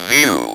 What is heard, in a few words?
"VIEW", Speak & Spell